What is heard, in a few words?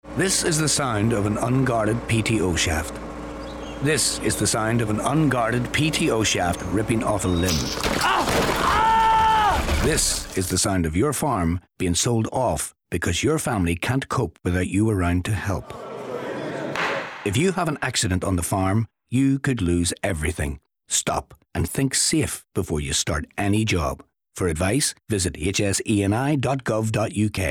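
radio pto, radio adverts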